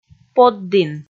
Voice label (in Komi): "поддін", Komi